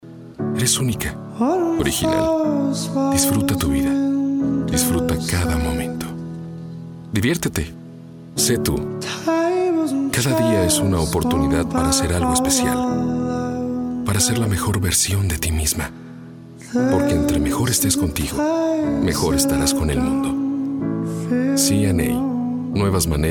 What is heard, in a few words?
locutor mexico publicidad mexican voiceovers; locutor actor de doblaje